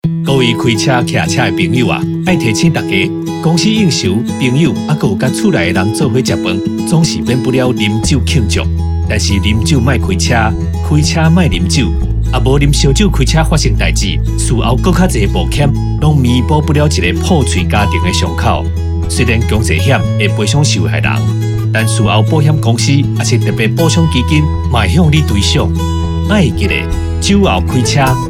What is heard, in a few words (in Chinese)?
餐敘篇(台語); 11月30日起，微型電動二輪車新車須投保強制險才能上路，現行已領有合格標章行駛上路的微型電動二輪車，應該在施行後2年內投保強制險。